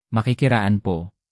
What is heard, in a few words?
"makikiraan", tagalog; basic tagalog for travel